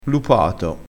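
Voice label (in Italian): "lupato", dialetto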